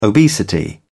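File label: "obesity", British English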